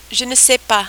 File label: "je ne sais pas", French